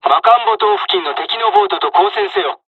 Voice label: dialogs japanese, attack; War Thunder

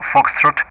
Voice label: "Foxtrot", ENG; Ka-50; Alphabet; DCS

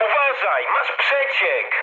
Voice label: damaged water mood high, speech pol; War Thunder

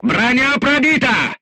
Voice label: voice message player tank hit, crew tanks russian

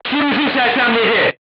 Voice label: voice message driver contused, crew tanks turkish

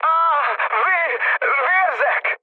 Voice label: damaged pilot, speech hun; War Thunder

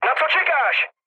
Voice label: attack position, speech cze, mood low

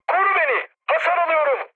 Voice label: aircraft damaged mood high, speech; War Thunder

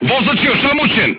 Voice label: voice message driver contused, crew tanks serbian

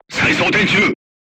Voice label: voice message reloading, crew tanks japanese

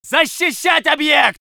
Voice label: voice sov infantry

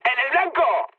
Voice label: bomb success, mood high, speech; War Thunder

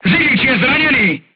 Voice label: voice message driver wounded, crew tanks czech

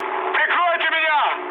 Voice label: aircraft damaged, mood med, speech ru; War Thunder

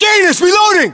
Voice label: inform reloading, US

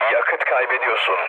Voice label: tank damaged, mood low, speech tur; War Thunder

